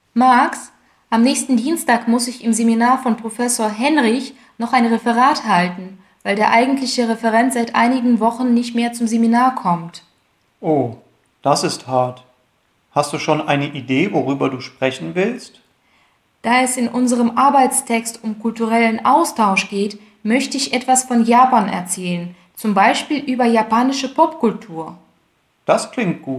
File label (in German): Dialog